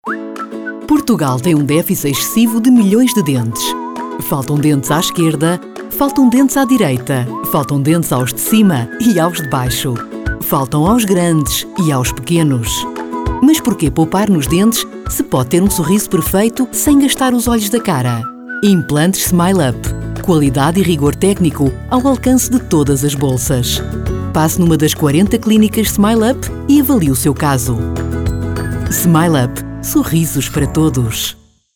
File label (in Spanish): Femenino